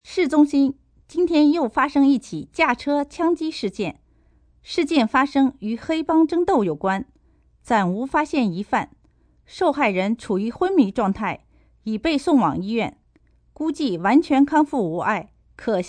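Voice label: News Drive By, Broadcast, news, female news item in Manderin on drive by shooting; VoiceBox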